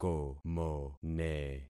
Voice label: "komone", concat syllables; Affixing experiment